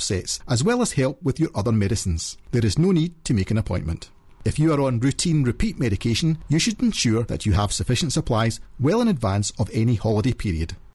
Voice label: advert self care pharmacy; nhsggc